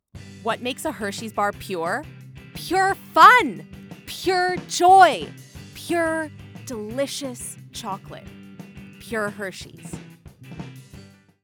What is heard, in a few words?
female English neutral North American accent commercial; hersheys; devine voices